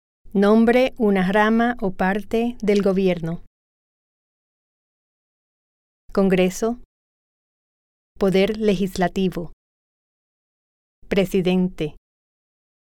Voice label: Spanish